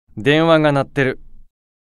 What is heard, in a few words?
Call Notification Voice; Beelzebub